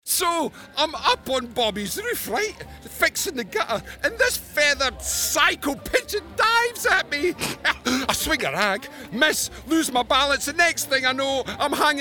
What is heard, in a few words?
gaming, Scottish voice; the pigeon situation